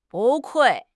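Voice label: "不愧", Chinese; HSK6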